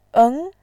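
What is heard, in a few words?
"eng", Mandarin Chinese, second tone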